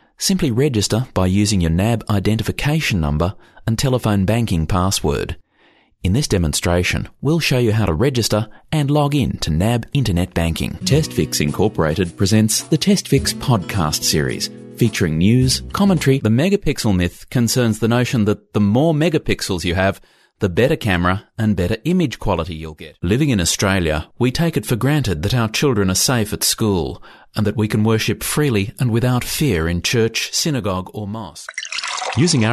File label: Corporate Training Business Websites